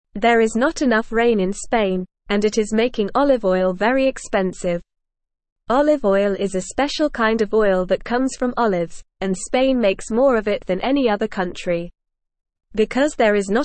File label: English Beginner NORMAL Reading; Not Enough Rain in Spain Makes Olive Oil Expensive; English Newsroom